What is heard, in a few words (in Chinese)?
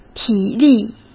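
"体力", Mandarin Chinese; hsk; chinese-flashcards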